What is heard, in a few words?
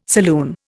"saloon", Indian